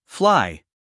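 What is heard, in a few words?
"fly", American English, male; everyone-can-use-english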